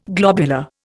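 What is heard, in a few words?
"globule", Indian; Dictionary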